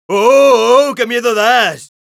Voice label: taunts es; Scout

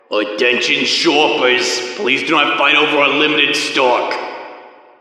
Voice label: Intercom round start

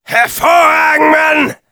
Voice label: positive vocalization, de; Demoman

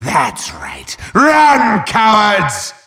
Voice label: wheel scared

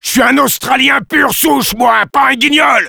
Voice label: Sniper taunts, fr

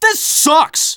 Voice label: Scout jeers

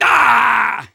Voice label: Soldier pain severe

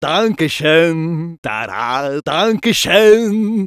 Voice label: positive vocalization, fr; Medic

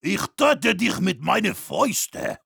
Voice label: Heavy melee dare, de

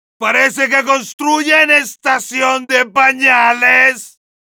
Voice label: Heavy taunts, es

